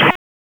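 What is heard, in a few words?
Raleigh Police North District Tactical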